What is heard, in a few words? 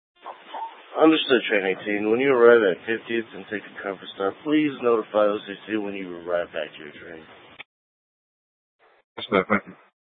Light Rail Ops, scanner; Valley Metro Public Transit System; Regional Wireless Cooperative (RWC) - Simulcast F- Tempe; Light Rail Ops 1